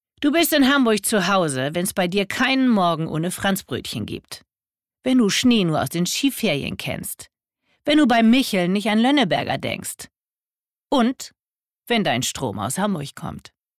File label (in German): Werbung Strom; Hamburg; LOFT VOICES